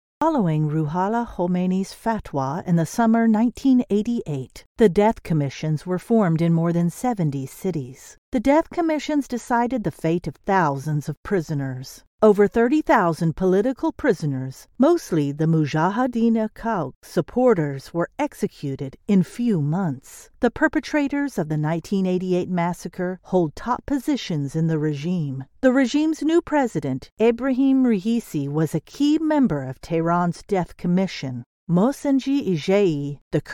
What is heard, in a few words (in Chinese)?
外籍女英 外语 美式英语 述说